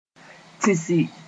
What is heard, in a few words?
"tĩsi", Moore; sneeze